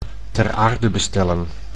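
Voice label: "ter aarde bestellen", Dutch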